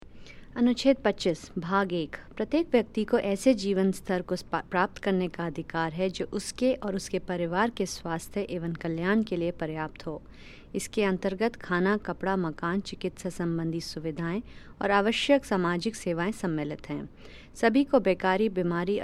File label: Hindi